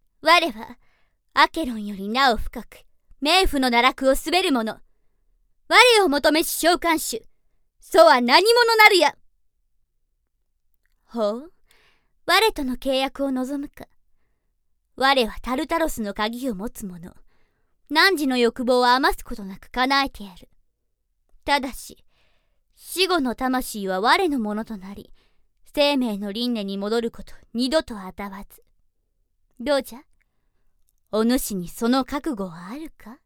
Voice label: girl, samplevoice